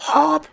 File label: jump, Danish; Worms Armageddon; Danish